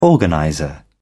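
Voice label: "organizer", British English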